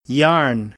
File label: "yarn", American English